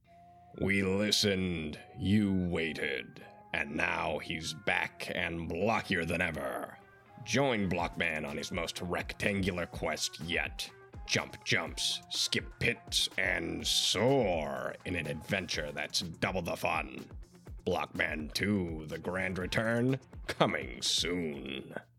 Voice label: Game Commercial; voice over reel; Commercial Reel Sample